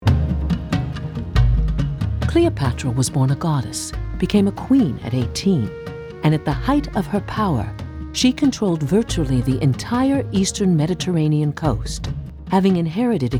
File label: Narration CAN Short